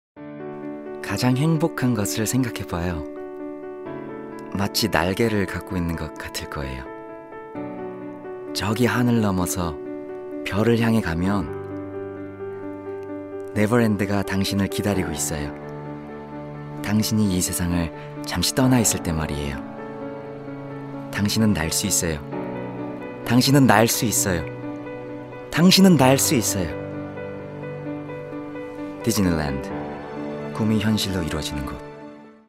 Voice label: Commercial Korean